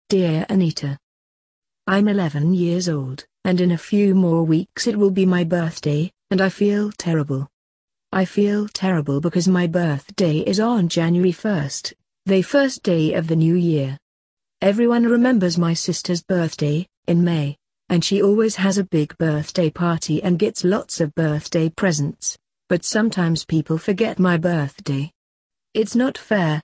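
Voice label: British; advice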